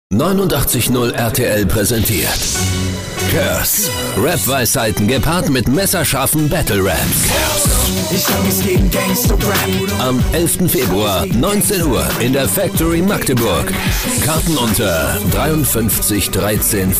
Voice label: Neutral

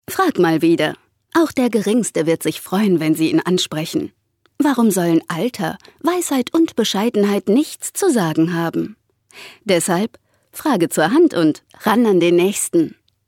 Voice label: Werbung Dynamisch

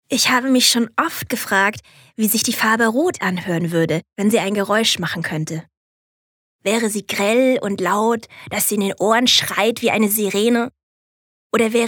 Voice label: Lebendig; Rot

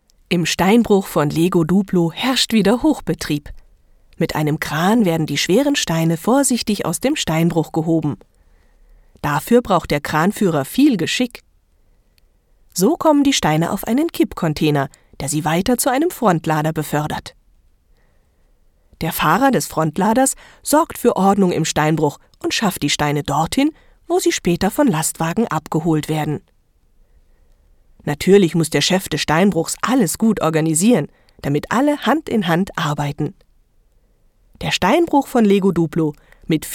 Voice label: Kommentar Neutral

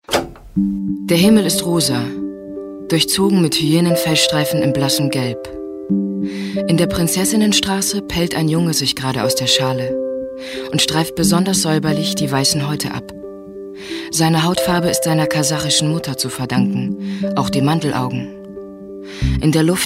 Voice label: Schauspiel, Sanft

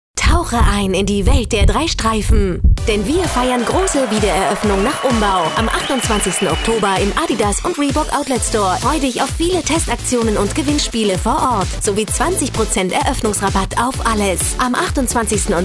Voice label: Fremdtake Dynamisch

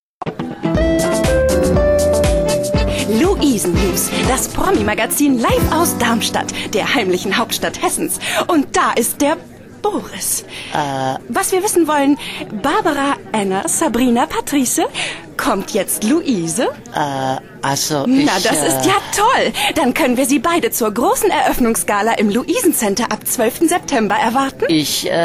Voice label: Dynamisch, W

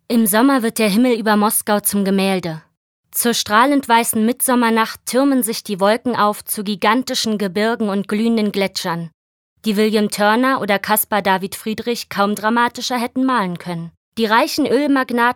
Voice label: Kommentar Neutral

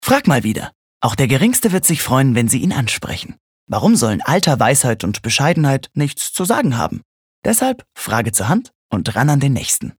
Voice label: Werbung, Freundlich

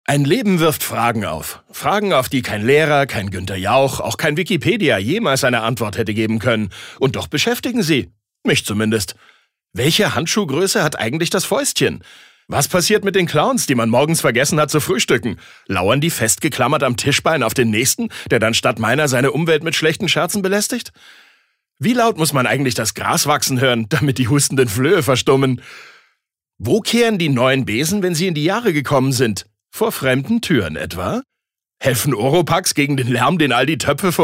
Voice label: Schauspiel Neutral

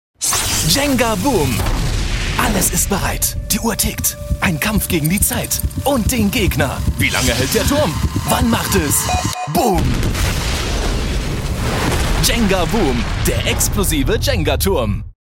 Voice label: Werbung Dynamisch

